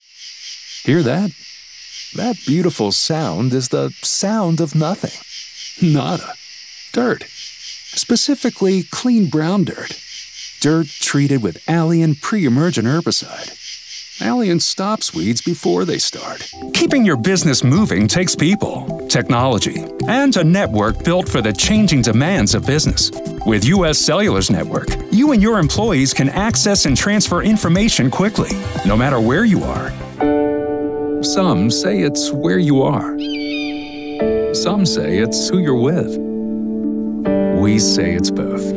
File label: Commercials